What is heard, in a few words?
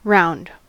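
"round", American English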